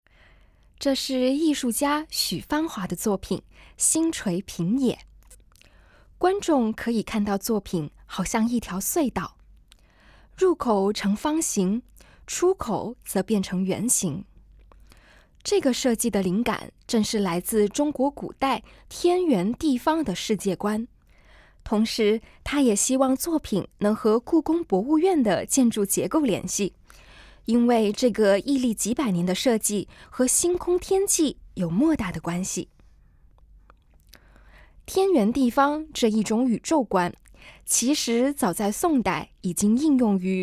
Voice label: Putonghua, audio-guide